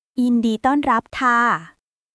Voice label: Thai language; タイ旅行や学習に役立つ！カタカナ発音で始めるタイ語基本100フレーズ集